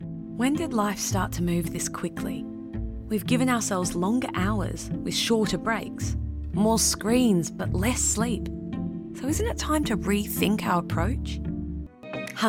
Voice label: Commercials